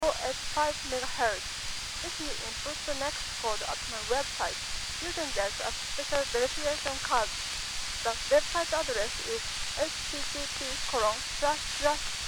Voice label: SEEDS DigiTalker